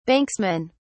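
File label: "banksman", British English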